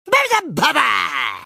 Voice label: battlecry; tf; crash